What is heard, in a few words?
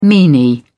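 "meanie", British English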